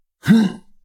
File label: guard male; SyrinScape; Waterdeep